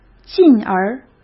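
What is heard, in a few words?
"进而", Mandarin Chinese; chinese flashcards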